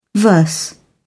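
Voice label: "verse", British English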